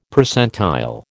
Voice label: "Percentile", American